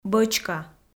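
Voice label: "бӧчка", Komi, female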